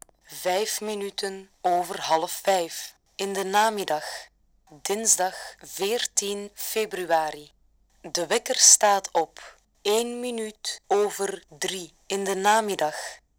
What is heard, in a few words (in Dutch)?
vrouw